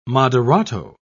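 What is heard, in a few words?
"moderato", British English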